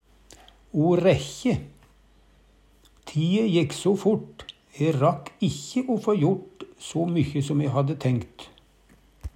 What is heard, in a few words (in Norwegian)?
o rækkje, Numedalsmål